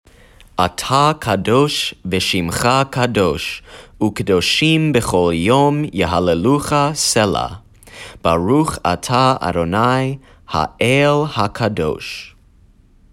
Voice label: Kdusht HaSheim Spoken